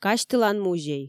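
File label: "казьтылан музей", Komi, female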